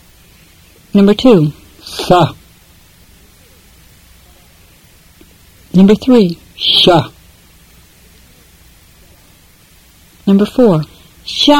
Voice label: Fluent Tibetan drill